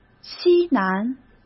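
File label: "西南", Mandarin Chinese; hsk; chinese-flashcards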